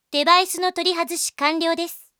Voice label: device off, Japan Anime Girls; Windows; Bing; Nanami

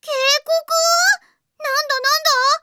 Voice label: alert, anime girls; Windows; Bing; Nana

